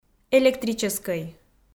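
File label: "электрическӧй" Komi, female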